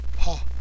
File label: "pha", tibet alphabet